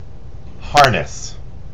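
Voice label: "harness", US English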